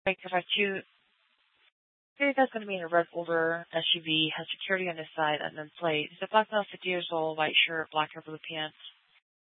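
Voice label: police department dispatch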